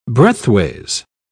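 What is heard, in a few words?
"breadthways", British English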